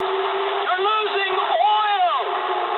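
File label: damaged oil mood medium, speech English; War Thunder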